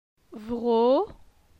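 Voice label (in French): "Vro", French; phonetique; la syllabe o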